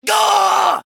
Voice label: Male Dwarf Death